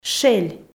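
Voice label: "щель", Komi, female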